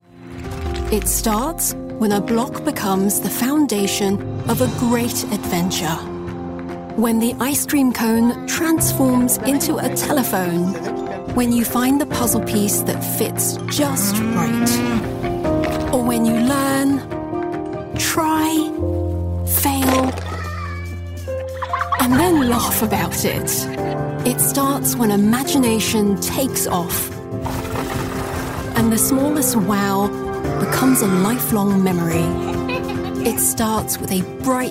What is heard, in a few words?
Commercial Toys Nurturing, female voices